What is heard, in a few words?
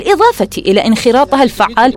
Arabic